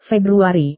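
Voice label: "februari", Indonesian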